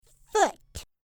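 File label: "foot", English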